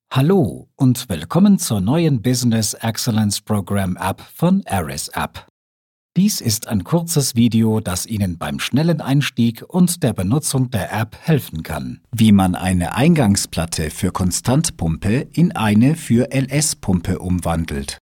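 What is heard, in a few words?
German narration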